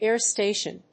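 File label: "air station", English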